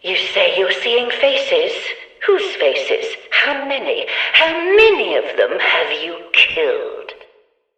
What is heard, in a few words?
Background Announcements